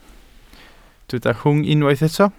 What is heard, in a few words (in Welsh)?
"rhwng", Welsh